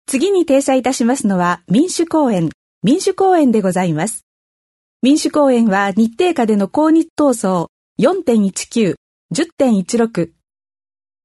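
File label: Japanese, female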